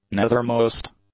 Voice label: "nethermost", english; adjectives